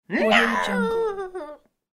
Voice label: cartoon character disappointed no

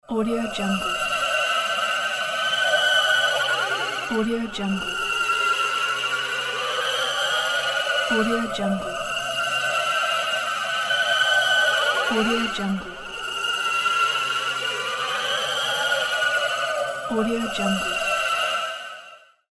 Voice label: alien voices

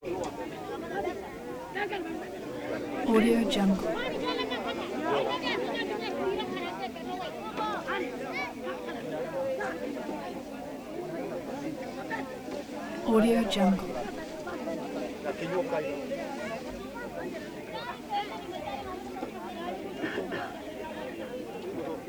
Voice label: chatter african village chatter talking men

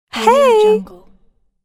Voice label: female sweet "hey" shout

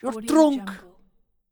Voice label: male irish you're drunk

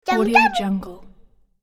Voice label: toddler phrase

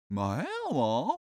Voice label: human vocal cartoon male agree long; smartsoundfx

